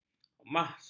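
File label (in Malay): "mahsul", Malay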